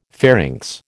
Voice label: "pharynx", American